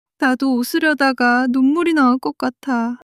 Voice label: crying; monologue